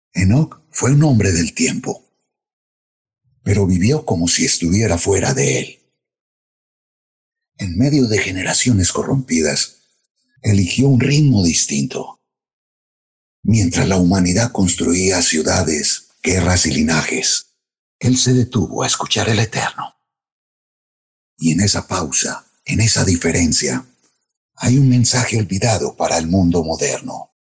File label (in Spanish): AUDIOLIBRO